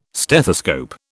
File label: "stethoscope", British